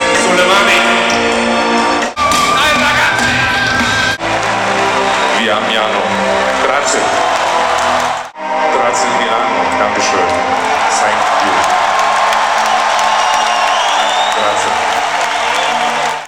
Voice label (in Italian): italian; milano